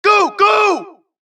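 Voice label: suppress, dialogue, Allies; mohaa; Mission 2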